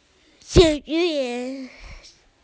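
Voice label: "sports", Mandarin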